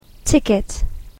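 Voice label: "ticket", English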